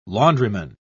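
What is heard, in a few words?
"laundryman", British English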